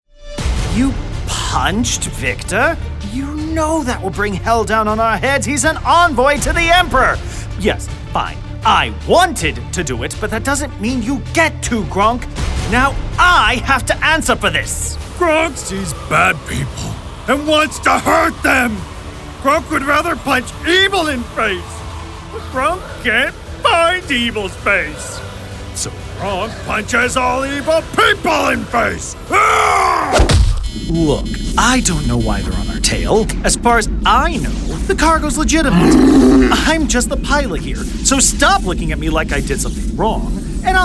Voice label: male Character

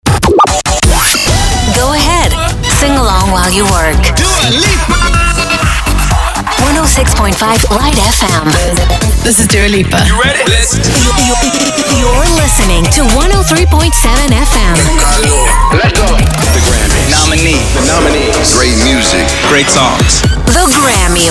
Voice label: Promo

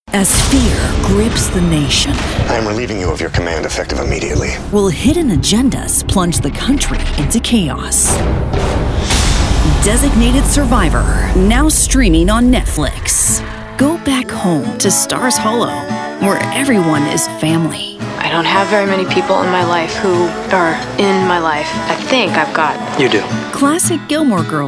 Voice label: Promo

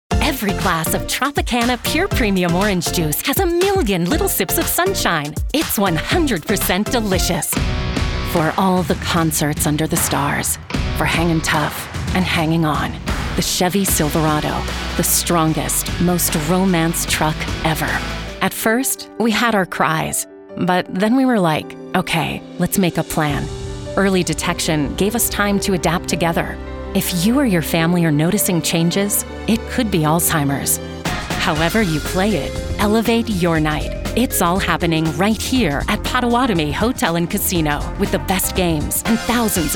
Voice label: Commercial

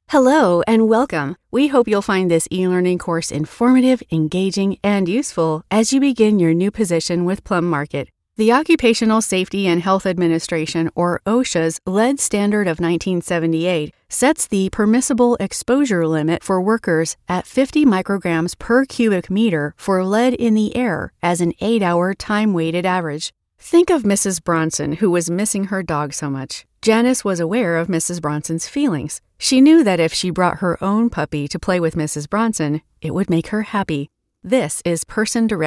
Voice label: Elearning

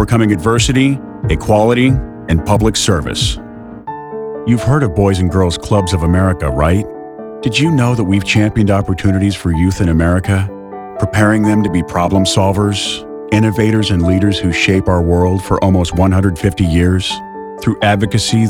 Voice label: CORPORATE

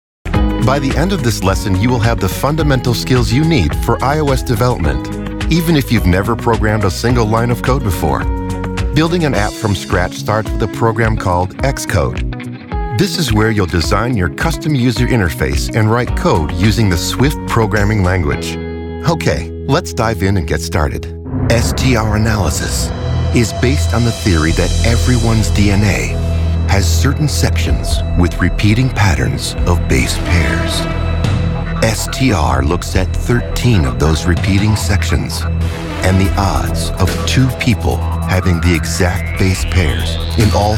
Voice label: Narration

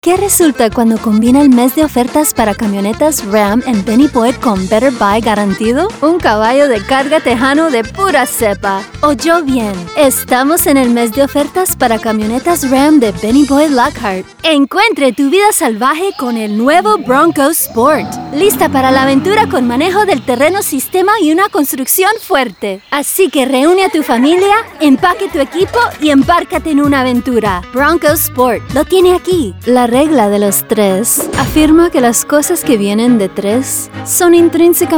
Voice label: Spanish Automotive

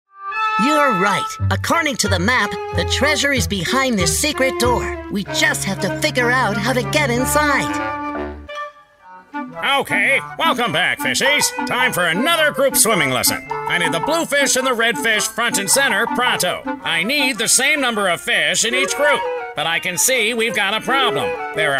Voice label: Animation

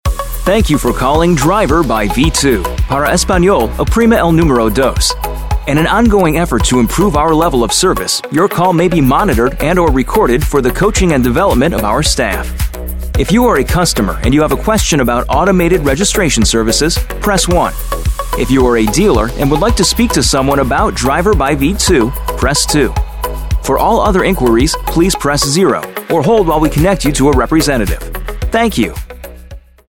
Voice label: IVR

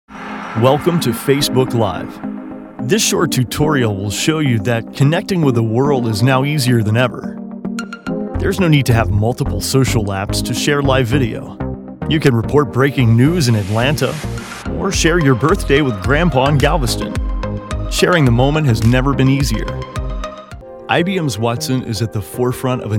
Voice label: Explainer

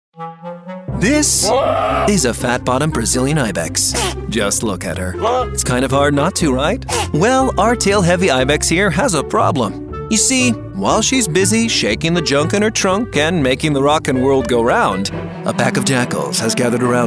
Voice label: Explainer

